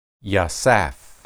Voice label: "yasaph", Hebrew